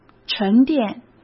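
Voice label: "沉淀", Mandarin Chinese; hsk; chinese-flashcards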